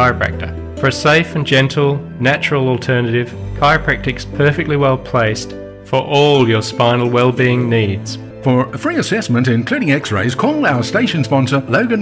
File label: 60 Sec Radio Ad Sciatica Referred Leg Pain